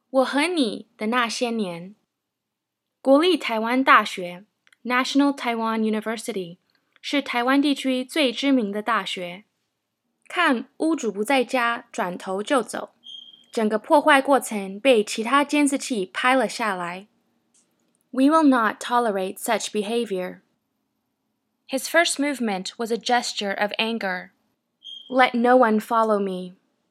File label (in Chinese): chinese mandarin commercial